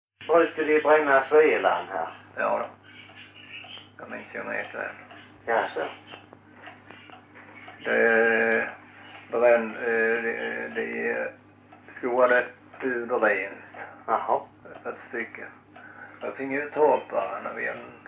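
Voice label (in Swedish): Loshult Skåne, dialekter; Folkminnen; Svenska sagor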